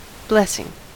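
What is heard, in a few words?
"blessing", English, American accent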